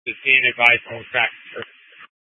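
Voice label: Incident Tactical 1, Scanner audio recording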